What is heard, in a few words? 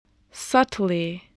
"subtly", spelling